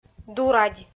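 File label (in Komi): "дурадь", Komi, female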